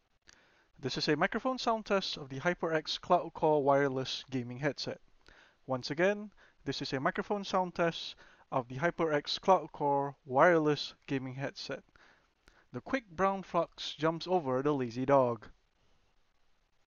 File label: Mic Test; HyperX Cloud Core Wireless